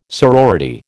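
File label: "sorority", American English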